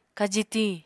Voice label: "kaji tiñ", Apatani